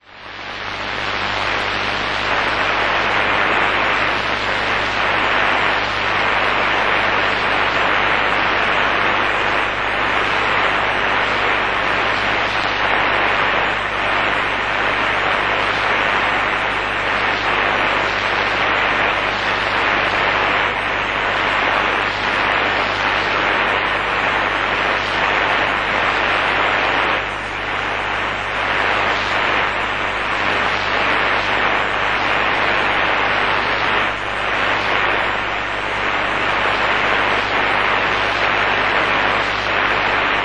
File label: 15530 kHz burmese; Adventist World Radio; Degen DE 1103; filo 5m indoor; St. Ursen